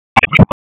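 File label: Police Spec Ops Tac, radio; Raleigh; wake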